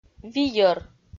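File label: "вийӧр", Komi, female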